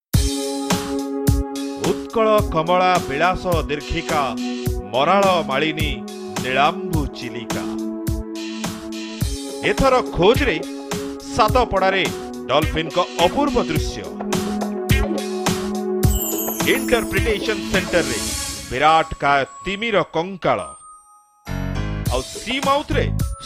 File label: promo oriya